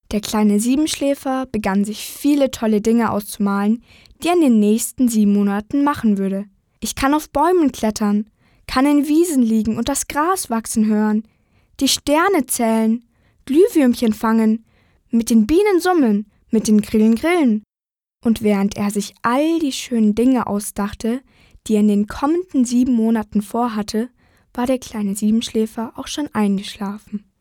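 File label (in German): Mädchen; Siebenschläfer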